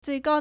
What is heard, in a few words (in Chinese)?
"最高 zuìgāo", Chinese; adjective; highest